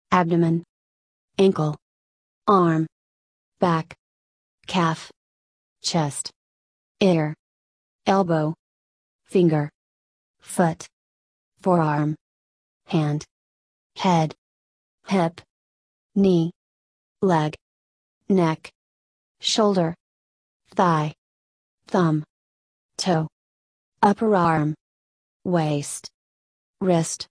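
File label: American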